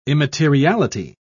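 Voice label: "immateriality", British English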